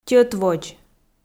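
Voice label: "тьӧтводз", Komi, female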